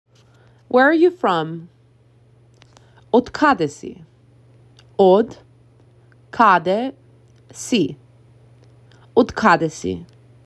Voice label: Where are you from, Macedonian phrasebook; the talking macedonian phrasebook